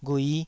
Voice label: "ghui5", Taiwanese syllable

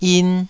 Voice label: "yin2", Taiwanese

